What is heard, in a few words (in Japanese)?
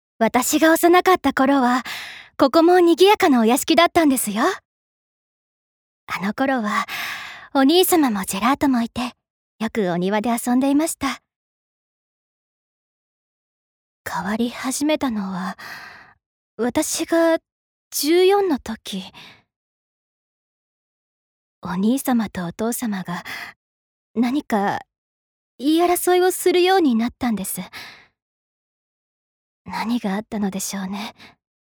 アニメ